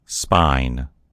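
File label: "spine", American English; gcompris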